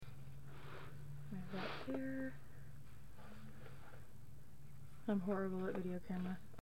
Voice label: come say hi and woman moan childrens area; Gardner’s Used Books & Music; Tulsa, Oklahoma